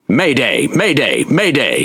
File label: Pirate Speech Quick Commands Sos; World Of Warships